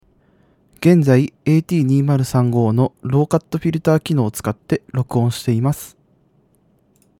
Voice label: at2035 low cut